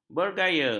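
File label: "bergaya", Malay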